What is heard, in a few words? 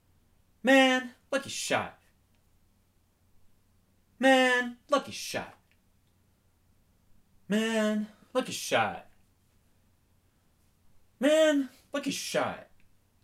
Man Lucky Shot Male